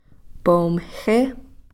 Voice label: "pomxi", Cow Creek